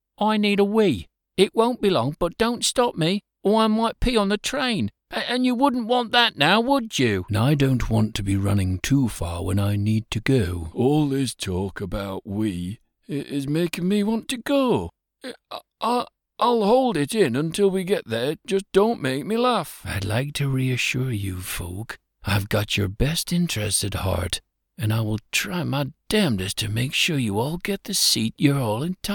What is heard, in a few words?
UK Characters